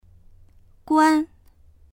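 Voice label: "guan1", Mandarin Chinese; 关; （ドアなどを）閉める、（電源を）切る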